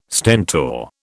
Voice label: "Stentor", British